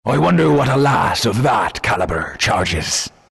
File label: announcer pick pretty lass, pirate; dlc workshop; capn